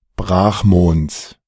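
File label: German "Brachmonds"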